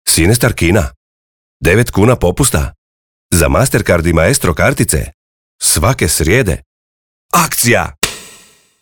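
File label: croatian male commercial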